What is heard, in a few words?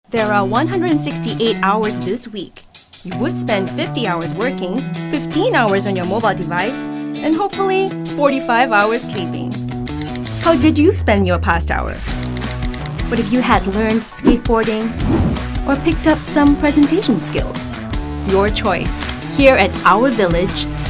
Online Video VO, Female VO; Social Campaign - Fun, Vibrant